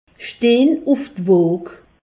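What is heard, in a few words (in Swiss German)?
"woo", Alsatian; medecine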